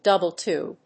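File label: "double to", English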